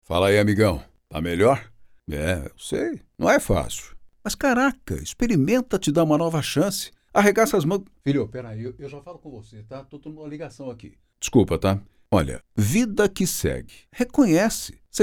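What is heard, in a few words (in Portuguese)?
Português - Brasileiro, Masculino, RH Treinamento, Voz Madura; Palavra de Amigo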